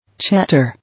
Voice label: "Chatter", English

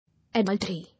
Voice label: "Adultery", English

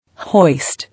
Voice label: "Hoist", English

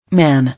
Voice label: "Man", English

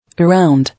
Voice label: "Around", English

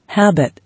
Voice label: "Habit", English

